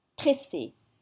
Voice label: "pressée", French

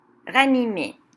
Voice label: "Ranimer", French

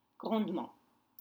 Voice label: "grondements", French; rumbles

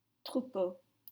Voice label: "troupeau", French

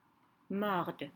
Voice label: "Mordent", French

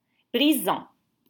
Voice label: "Brisants", French

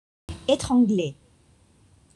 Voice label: "etrangle", French

